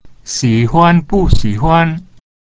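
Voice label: "xi3huan1bu4", Mandarin Chinese; like-not-like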